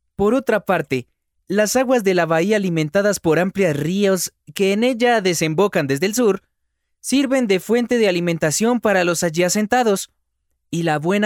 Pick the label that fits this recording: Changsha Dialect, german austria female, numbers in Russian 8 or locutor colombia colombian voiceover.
locutor colombia colombian voiceover